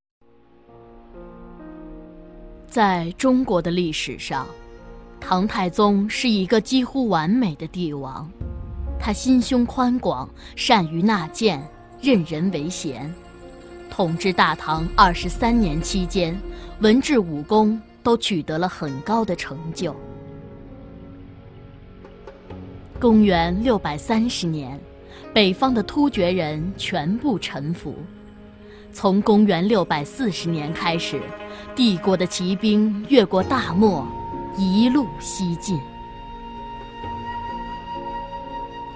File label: Chinese history VO